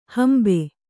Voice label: "ಹಂಬೆ", Kannada

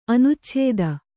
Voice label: "ಅನುಚ್ಛೇದ", Kannada

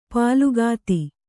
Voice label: "ಪುಲಕಿತ", Kannada

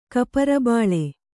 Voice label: "ಕಪರಬಾಳೆ", Kannada

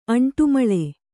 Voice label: "ಅಂಟುಮಳೆ", Kannada

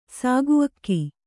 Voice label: "ಸಾಗುಅಕ್ಕಿ", Kannada

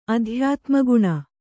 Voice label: "ಅಧ್ಯಾತ್ಮಗುಣ", Kannada

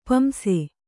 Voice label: "ಪಾಂಸು", Kannada

